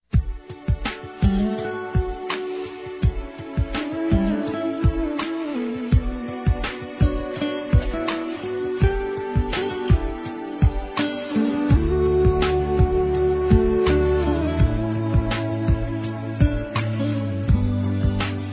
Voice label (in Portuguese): Aniversário Parabéns Feminino; Aniversários; Aniversários diversos